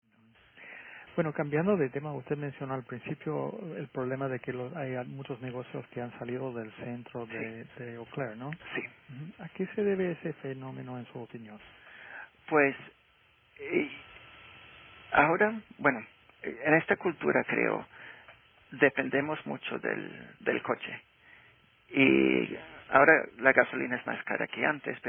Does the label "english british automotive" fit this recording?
No